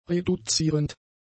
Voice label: "reduzierend", German; adjectives